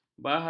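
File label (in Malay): "baharu", Malay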